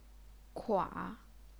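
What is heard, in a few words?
"kua", Chinese, third tone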